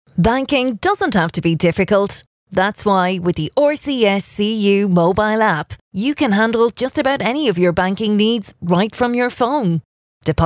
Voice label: Sincere